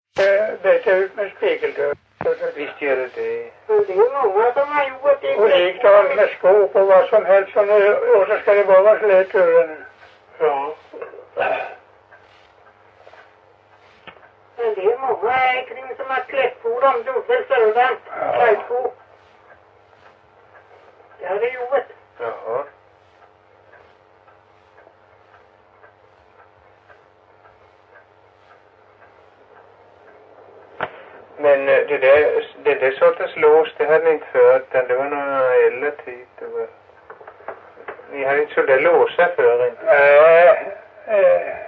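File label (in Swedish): Stenåsa Öland, svenska sagor dialekter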